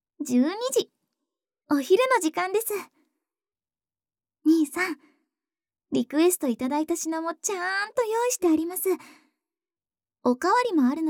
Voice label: 12時, 時報ボイス

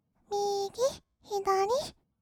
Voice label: "みーぎ ひだり", kawaii voice; 1200 kawaii voice!!; 色々なワンショット

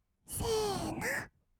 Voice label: せーの, kawaii voice; 音ゲー

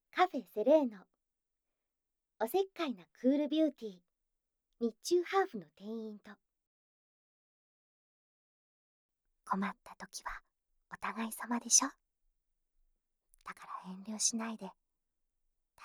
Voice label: タイトルコール

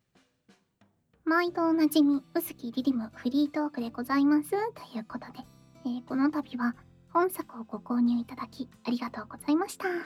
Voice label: フリートーク; アオハル; 濃厚フリートーク; ASMR

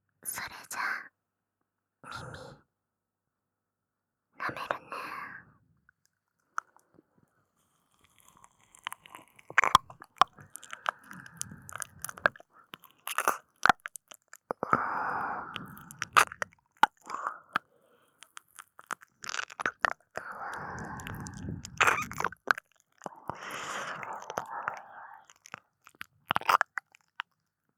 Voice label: 優しさたっぷりの囁きと心地いい耳舐め（SE無し）

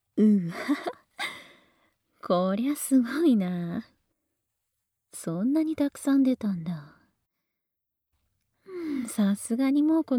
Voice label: やりすぎ ASMR

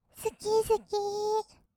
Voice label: "すきすきー", kawaii voice; ASMR; 色々なワンショット

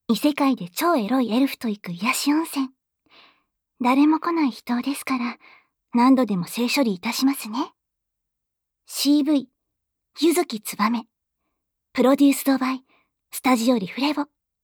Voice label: タイトルコール

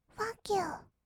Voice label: ふぁっきゅー, kawaii voice; 音ゲー

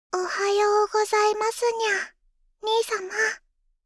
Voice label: おはようこざいますにゃ 兄様; ASMR; Windows等向けシステムボイス

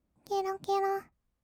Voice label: 「けろけろ」, kawaii voice; 1200 kawaii voice!!; 色々なワンショット

